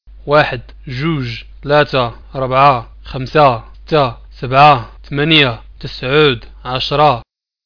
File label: moroccan numbers